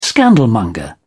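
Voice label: "scandalmonger", British English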